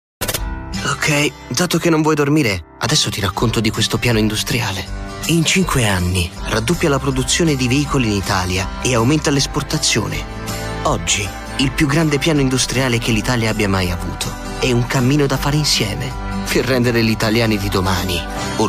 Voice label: Voce documentary; Decibel

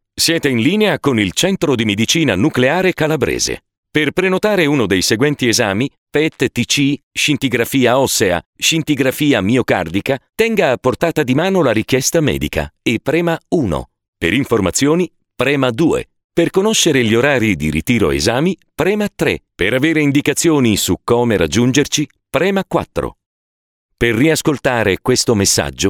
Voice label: Segreteria

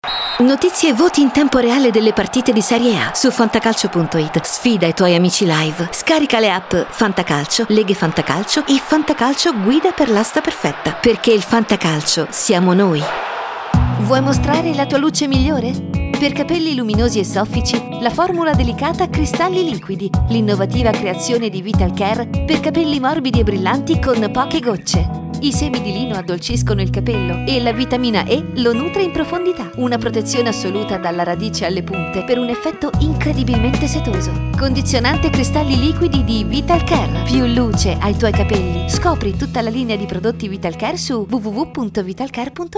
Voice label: Voce Spot; DECIBEL

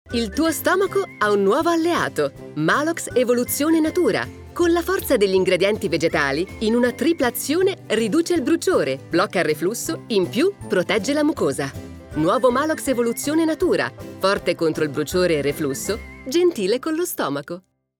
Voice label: Spot Maalox

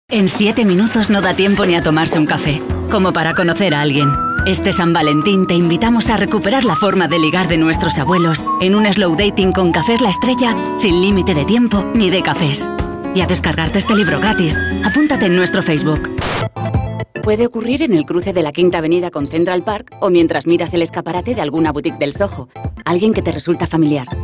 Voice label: Voce Spagnola

